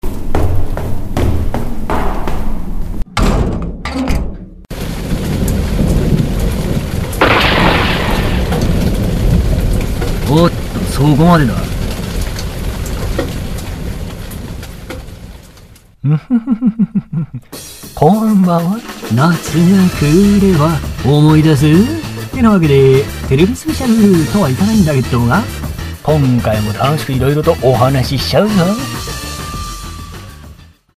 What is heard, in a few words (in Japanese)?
声真似; 声真似サンプル１ ルパン三世・山田康雄さまイメージ; ※代表作としてアニメ、ルパン三世のルパン三世役; 洋画、クリント・イーストウッドの吹き替え等を行われた方です。